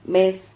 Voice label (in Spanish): "mez", Silabas